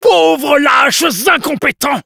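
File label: Spy taunts, French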